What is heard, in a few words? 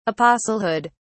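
"apostlehood", British English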